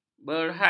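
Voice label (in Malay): "Berhad", Malay; bhd.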